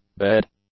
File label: "bed", English; nouns